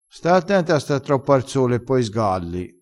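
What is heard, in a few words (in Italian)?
"sgalla", vocabolario dialettale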